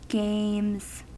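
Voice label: "games", American English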